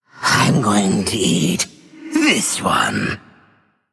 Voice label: Male Undead